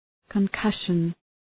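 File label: "concussion", English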